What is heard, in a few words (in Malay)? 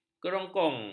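"kerongkong", Malay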